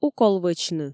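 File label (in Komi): укол вӧчны, Komi, female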